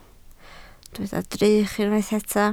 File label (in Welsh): "drych", Welsh